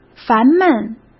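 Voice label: "烦闷", Mandarin Chinese; chinese flashcards; hsk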